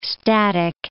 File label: "static", English